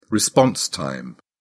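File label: "response time", British English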